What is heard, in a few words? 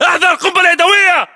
inform incoming grenade, english battlechatter